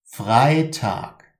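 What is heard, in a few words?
"freitag", German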